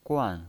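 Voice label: "guan", Mandarin Chinese, fourth tone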